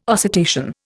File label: "oscitation", Indian